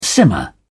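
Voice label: "simmer down", British English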